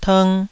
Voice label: "tng2", Taiwanese